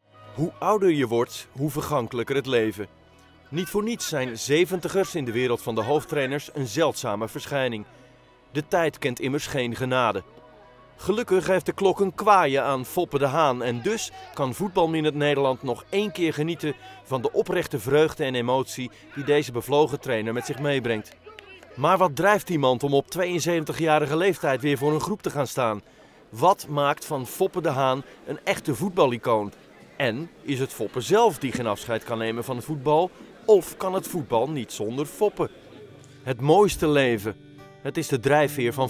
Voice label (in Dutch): Broadcast Documentaire TV, Voice-over